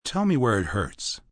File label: Where It Hurts, Doctor, male: 'Tell me where it hurts', medical, hospital, doctor's office; (human voice speaking); Talking